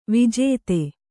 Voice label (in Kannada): "ವಿಜಾತಿ", Kannada